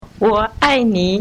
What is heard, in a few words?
Mandarin